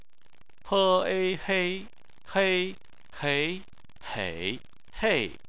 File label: "hei", chinese